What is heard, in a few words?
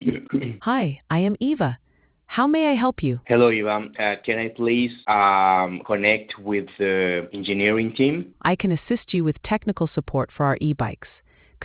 conversation